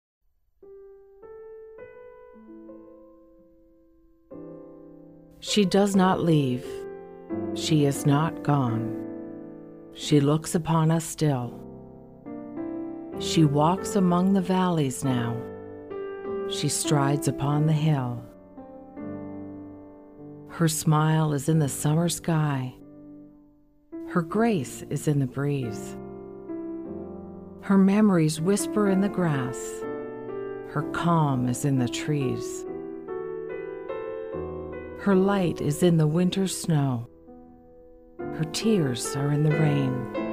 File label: Poem